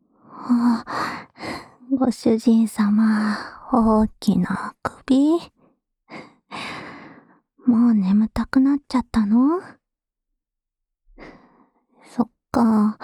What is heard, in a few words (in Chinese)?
陪睡／左, ASMR